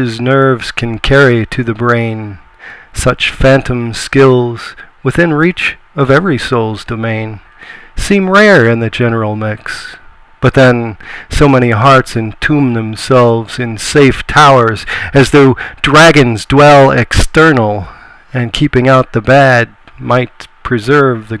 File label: whisper